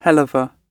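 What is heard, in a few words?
"helluva", British English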